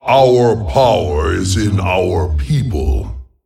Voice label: Male Tol'vir Play